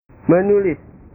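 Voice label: "menulis", Indonesian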